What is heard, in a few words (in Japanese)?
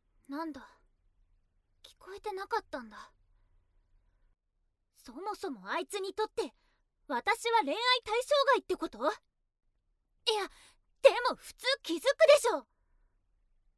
アニメ